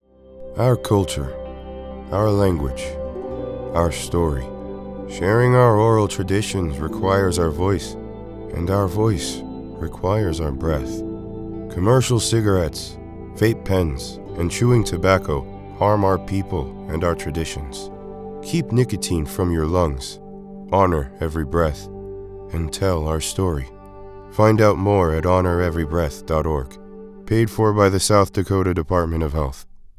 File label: Radio; SD Tobacco - Honor Every Breath